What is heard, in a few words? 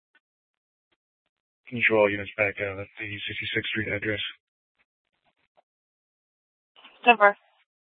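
Scottsdale Police South Dispatch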